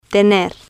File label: "tener", Spanish